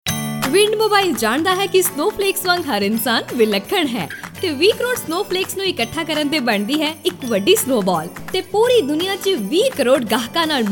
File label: snow flakes punjabi; Wind Mobile